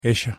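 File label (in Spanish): "ella", Argentina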